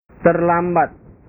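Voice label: "terlambat", Indonesian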